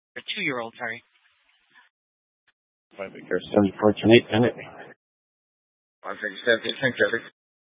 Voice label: Dispatch, scanner